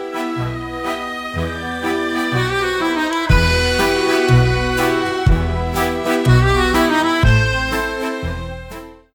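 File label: Italian English; voice-over; Genuine British Received pronunciation, cockney and Scottish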